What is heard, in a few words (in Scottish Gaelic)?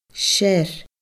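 "sear", Scottish Gaelic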